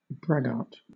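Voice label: "braggart", British English; pronunciations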